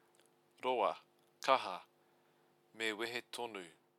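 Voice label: "roa kaha mewehetonu", Maori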